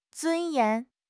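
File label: "尊严", Mandarin Chinese; HSK6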